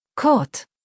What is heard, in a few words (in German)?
"Kurt", deutsch, jungennamen